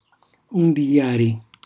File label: "undijari", Calabrese; cucinacalabrese; glossario; Uundijàri; ondeggiare